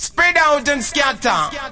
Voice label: spred n scat, Rastafarian